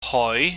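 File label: "hoi", Cantonese, mid rising tone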